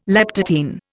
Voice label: "leptotene", Indian

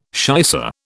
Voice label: "shicer", British

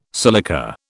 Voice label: "silica", British

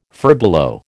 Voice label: "furbelow", American

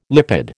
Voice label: "lipid", American

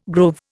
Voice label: "grove", Indian

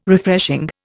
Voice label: "Refreshing", Indian

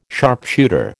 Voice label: "sharpshooter", American

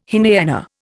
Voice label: "Hinayana", Indian

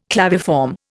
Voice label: "claviform", Indian

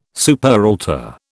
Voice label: "superaltar", British

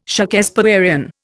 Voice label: "Shakespearian", Indian

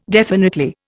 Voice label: "definitely", Indian

